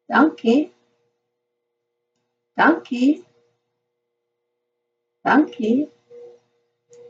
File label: Afrikaans Thank you; Greetings